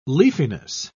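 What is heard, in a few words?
"leafiness", English, British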